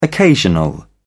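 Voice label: "occasional", British English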